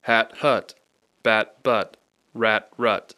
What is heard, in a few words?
hat hut bat but rat rut, English, Rapid Sound Practice; Speech Pro